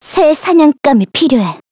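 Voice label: Vox Victory, Korean; Yanne L; kingsraid; voices heroes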